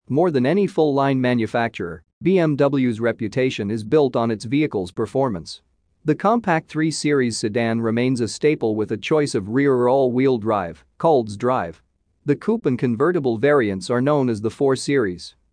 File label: presentations example